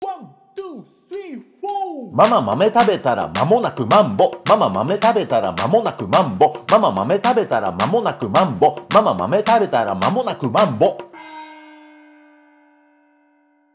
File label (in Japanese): 早口言葉リズム（中級編）